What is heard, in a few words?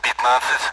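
Speech Sound Russian Common AWACS Numbers; DCS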